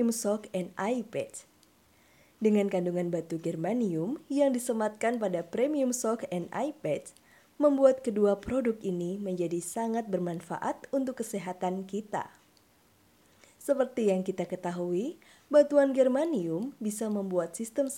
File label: Indonesia formal casual